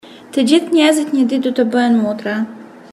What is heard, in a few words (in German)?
albanisch